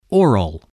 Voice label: "oral", English voice